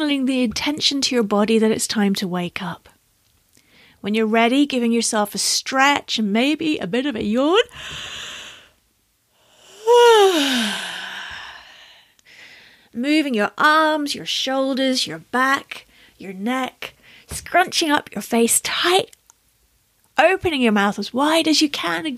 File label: Meditation; Start Your Day Right